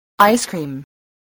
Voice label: "ice-cream", voice spelling